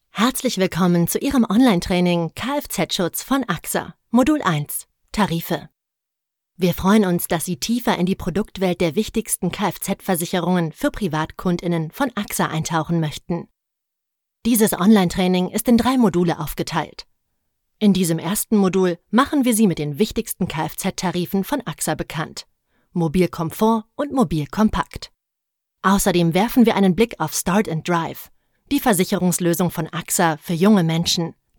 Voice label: German e-learning